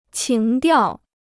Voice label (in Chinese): "情调", Chinese